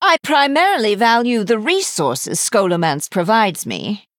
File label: Female High Elf Emote Flavor